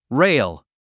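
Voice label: "rail", English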